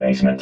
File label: "basement", robot vox